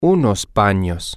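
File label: male, spanish; some Bathrooms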